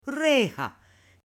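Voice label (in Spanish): "reja", Spanish, female; OBJETOS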